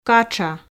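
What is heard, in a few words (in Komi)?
"катша", Komi, female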